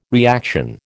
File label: "reaction", American